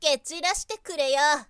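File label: "ketirasitekureyou", Japanese, girl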